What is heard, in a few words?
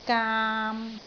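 "gaam3", Cantonese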